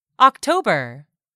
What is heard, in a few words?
"october", English; 3000 words